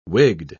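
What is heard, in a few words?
"wigged", British English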